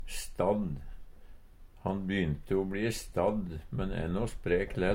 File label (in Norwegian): stadd, Numedalsmål; tilårskomen; Adverb